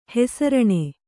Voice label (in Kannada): "ಹೆಸರಾನು", Kannada